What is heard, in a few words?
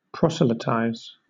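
"proselytize", British English; pronunciations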